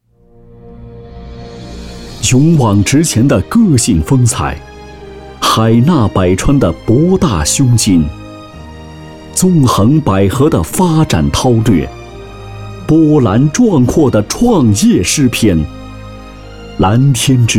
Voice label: 企业宣传 浑厚大气; 利安达集团

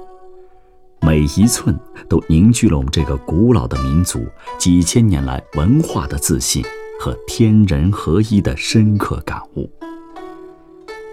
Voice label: 纪录 服饰 汉服

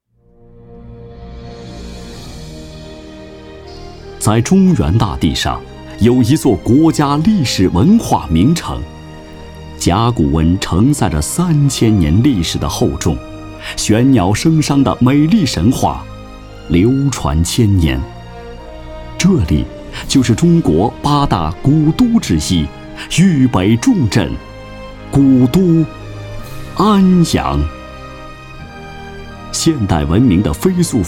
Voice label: 专题片 城市 安阳 浑厚大气

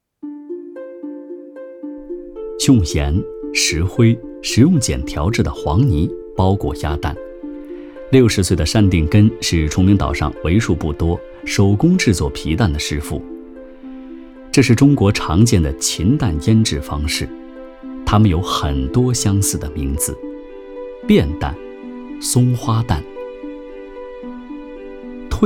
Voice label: 纪录 美食 松花蛋

